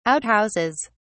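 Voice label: "outhouses", British English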